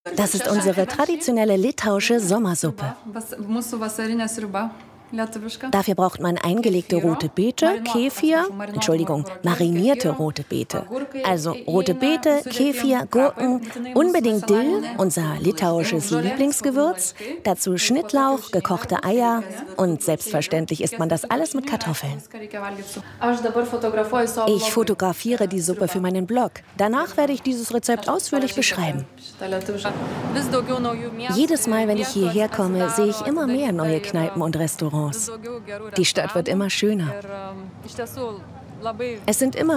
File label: Litauen Voiceover